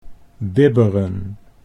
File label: "bibberen", Dutch